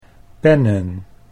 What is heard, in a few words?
"pennen", Dutch